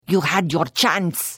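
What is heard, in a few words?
Flee, Khajiit female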